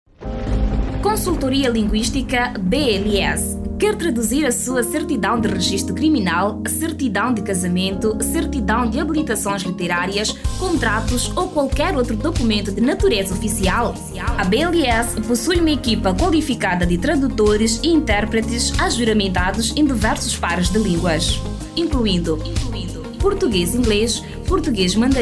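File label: Portuguese Mozambique female narrative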